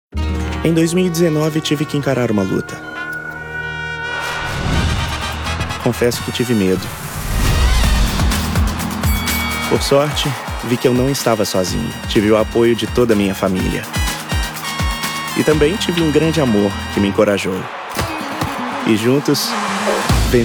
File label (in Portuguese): CONVERSADA SINCERA; DISNEY PRIDE